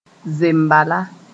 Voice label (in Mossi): "zembala", moore